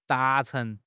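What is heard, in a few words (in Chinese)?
"达成", Chinese; HSK6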